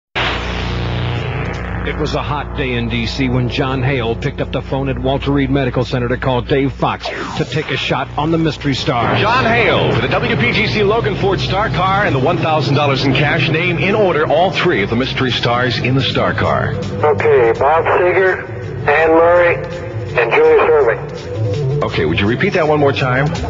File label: promo; 1979 summer; indy 500 star car